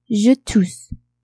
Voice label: "je tousse", French; tousser

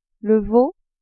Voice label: "veau", french

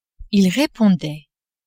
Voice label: "ils repondaient", French

